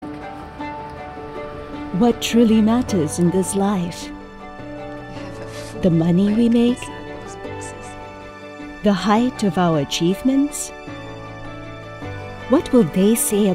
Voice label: english international corporate videos, singaporean english voice actors; Una actriz de voz cálida, amigable y versátil con atractivo global.; Vídeos corporativos